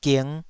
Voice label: "ging5", Taiwanese syllable